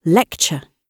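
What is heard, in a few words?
"lecture", British English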